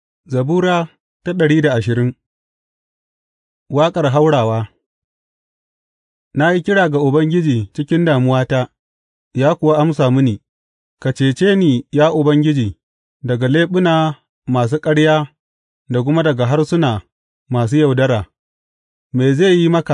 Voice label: hausa bible drama, psalms; hausa bsn nt drama